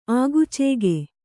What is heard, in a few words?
"ಆಗುಚೇಗೆ", Kannada